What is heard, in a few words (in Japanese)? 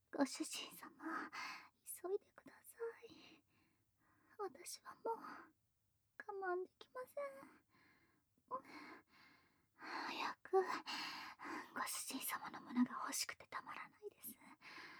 せかしボイス; まりかと暮らせるボーナストラック; 催眠後